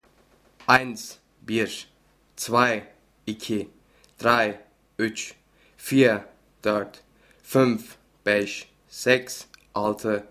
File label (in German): Deutsch Tuerkisch